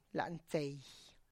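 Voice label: "lantsey", Tlacochahuaya Zapotec; palate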